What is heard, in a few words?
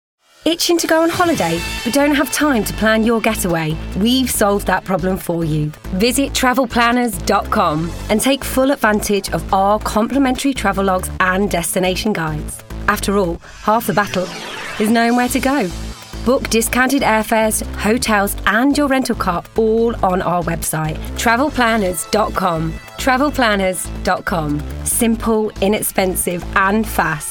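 English UK Commercial; voice demos; GoLocalise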